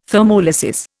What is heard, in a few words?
"thermolysis", Indian